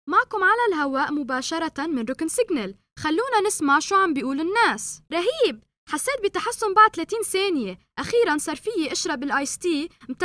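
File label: Arabic Lebanon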